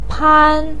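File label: "pan1", Mandarin pinyin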